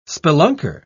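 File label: "spelunker", English, British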